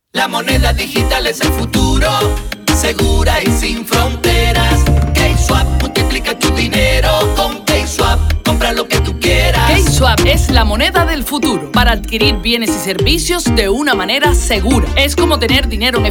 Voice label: Spanish

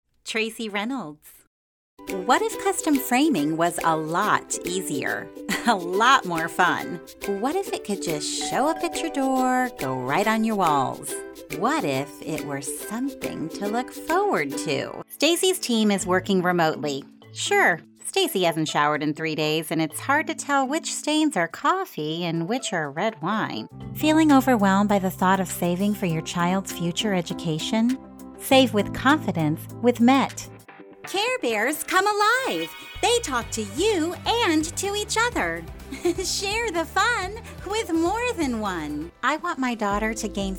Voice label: Commercial; Commercial Demo

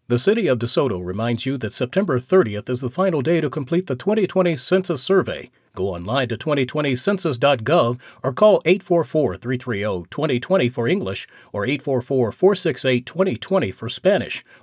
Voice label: Census robocall

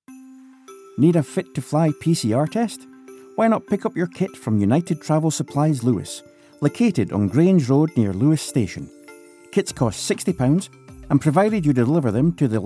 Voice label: 30 second radio ad; FIT TO FLY TESTING

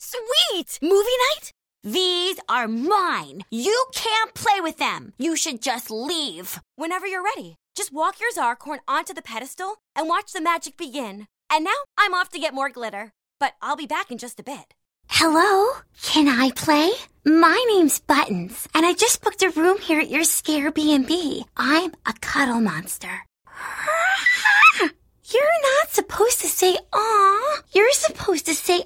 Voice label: Cartoon

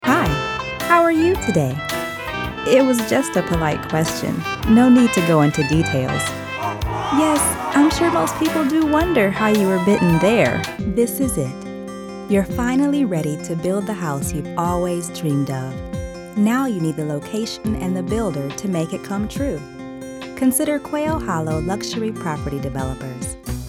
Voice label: Commercial

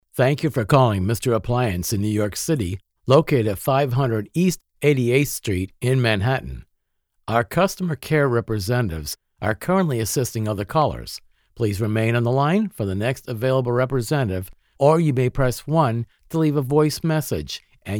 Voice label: APPLIANCE ON HOLD; MR APPLIANCE; NYC

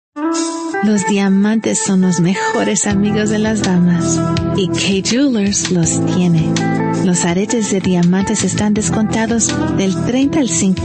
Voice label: Spanish VO

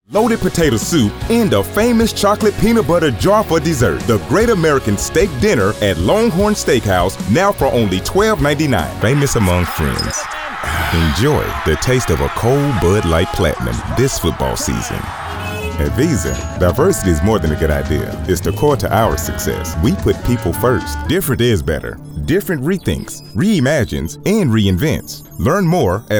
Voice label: Commercial

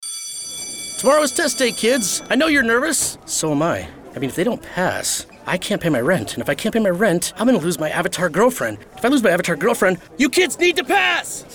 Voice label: ANIMATION

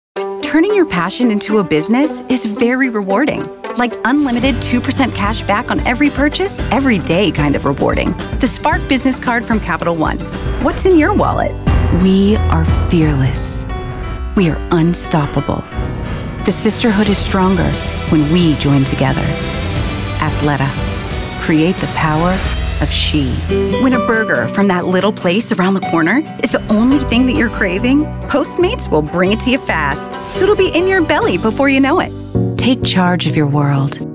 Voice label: Commercials

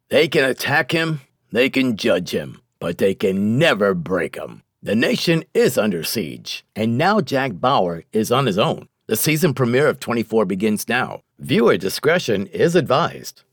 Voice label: TV PROMO

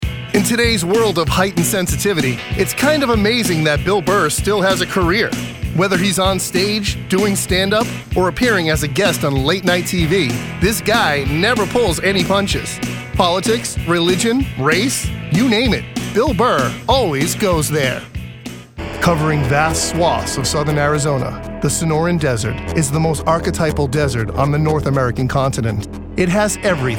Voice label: Narration

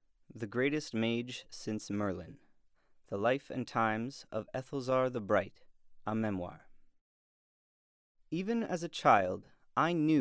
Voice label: Narration

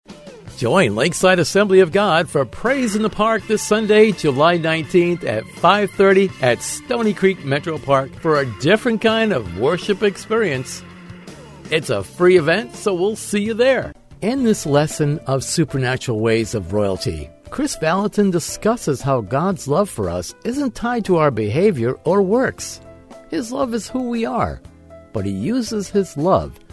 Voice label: INSPIRATIONAL

